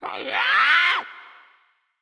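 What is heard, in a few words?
goblin vox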